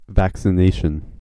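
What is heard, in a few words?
"vaccination", US English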